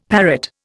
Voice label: "parrot", Indian; Dictionary

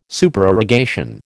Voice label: "Supererogation", American

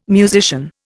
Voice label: "musician", Indian

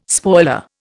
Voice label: "spoiler", Indian

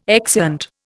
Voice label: "exeunt", Indian

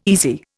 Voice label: "easy", Indian

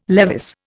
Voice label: "Levis", Indian

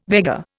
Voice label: "Vega", Indian

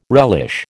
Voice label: "relish", American

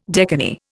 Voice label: "decani", Indian

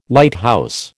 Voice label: "Lighthouse", American

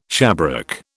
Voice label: "shabrack", British

